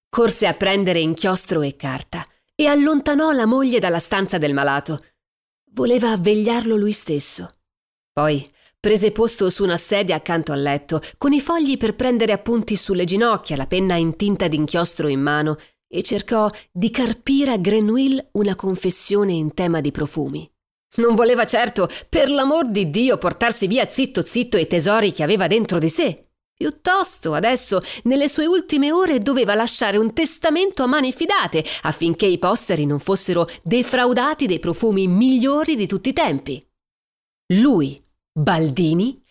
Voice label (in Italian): audiolibri